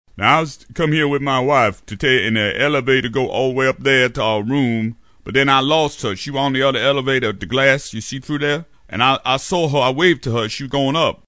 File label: Black Dude, Older, cartoon; animation